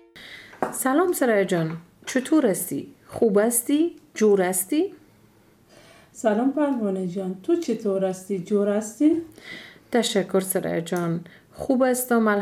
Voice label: Dialog, Dari Persisch